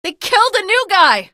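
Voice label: citizen buddy killed, female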